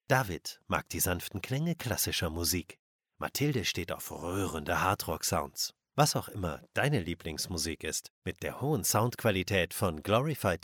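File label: German, male; voice over talent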